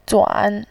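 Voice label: "zuan" Mandarin Chinese, third tone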